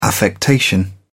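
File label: "affectation", English, uk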